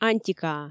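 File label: "антикаа", Komi, female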